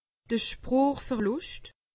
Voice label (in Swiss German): "sproochverluscht", alsace; medecine